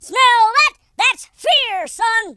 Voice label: dragon punch, Drill Sergeant; speech

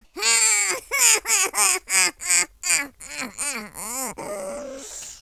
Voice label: sad, speech, French

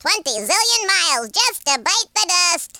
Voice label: bye bye, speech, Alien; Worms3D

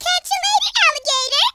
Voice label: "bye bye", speech

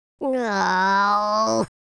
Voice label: sad

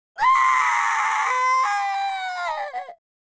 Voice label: scared2, speech, Italian

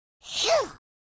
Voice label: relief, Irish; speech

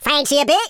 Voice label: dragon punch, London Cabbie; speech

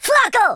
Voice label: fire, Italian, speech

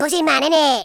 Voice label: "amazing", Madchester

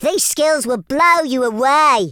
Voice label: watchthis, Teenage Angst; speech

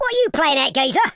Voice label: "missed", London Cabbie; speech

